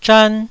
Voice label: "zhan1", Taiwanese syllable